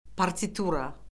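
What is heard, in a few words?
"partitura", Azerbaijani; Orfoqrafiya Lüğəti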